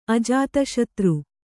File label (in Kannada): "ಅಜಾತಶತ್ರು", Kannada